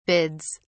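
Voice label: "bids", English, British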